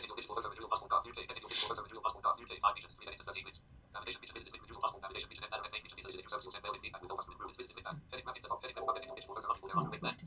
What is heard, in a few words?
fast screen reader